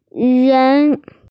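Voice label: "ren2", Mandarin Chinese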